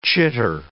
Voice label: "chitter", English

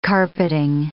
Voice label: "carpeting", English

